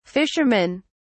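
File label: "fishermen", British English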